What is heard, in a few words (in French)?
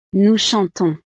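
"nous chantons", French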